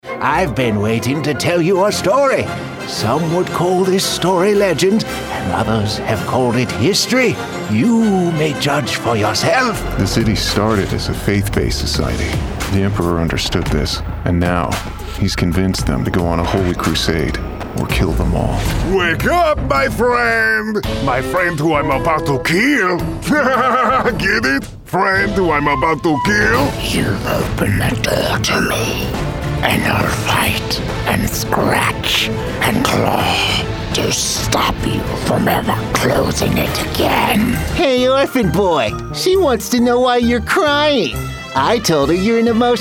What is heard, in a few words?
Animation